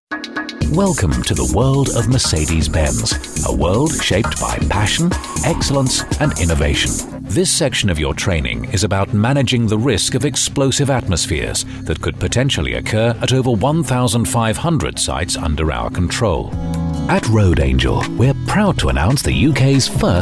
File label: Warm Male, elearning voices